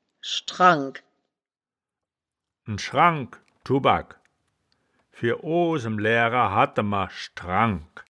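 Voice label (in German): "schdrangk", Wittlicher Wörterbuch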